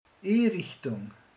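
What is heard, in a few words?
"bútor", Hungarian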